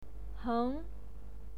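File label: "heng", Mandarin Chinese pinyin, second tone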